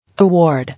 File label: "Award", English